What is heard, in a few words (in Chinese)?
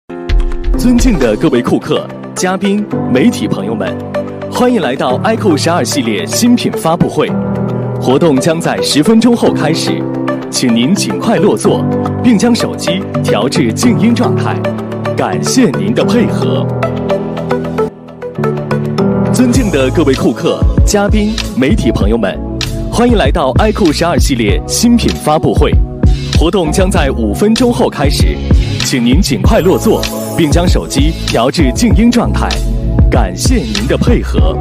男 广告 新品发布会; IQOO12系列新品发布会